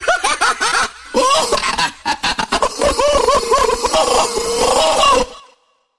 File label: rage medic; missingteam